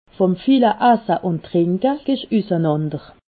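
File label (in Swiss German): "esse", Alsatian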